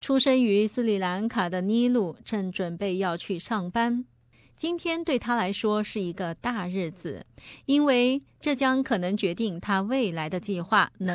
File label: mandarin; SBS 普通话电台; 斯里兰卡裔唐氏综合症女孩变身叉车司机